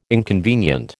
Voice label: "inconvenient", American